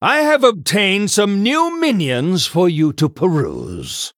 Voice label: Male Human General